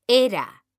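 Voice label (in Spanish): "era", Spanish, female; mekanta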